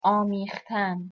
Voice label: "amekhtan", Persian

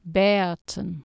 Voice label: "beatan" Anglo Saxon

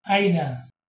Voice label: "aina", Proto-Germanic

